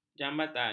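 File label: "jambatan", Malay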